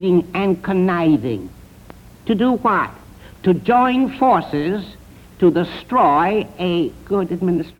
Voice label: Speech New York, american english dialect recordings; Fiorello H La Guardia